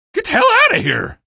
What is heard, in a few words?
reach train, male; video games; npc; source engine; episodic; episode 1